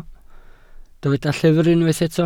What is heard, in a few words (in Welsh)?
"llyfr" Welsh